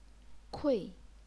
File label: "kui4", chinese pinyin